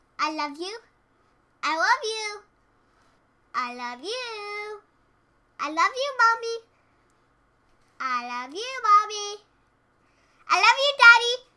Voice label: Child Saying I Love You I Love You Mommy I Love You Daddy